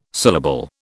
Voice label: "syllable", British